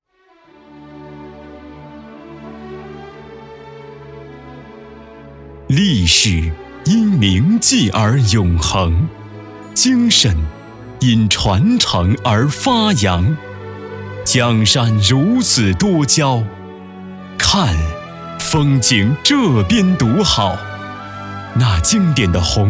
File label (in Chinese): 男 党建 银行; 银行宣传样音; 建设银行